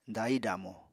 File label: "dahi-damo", Apatani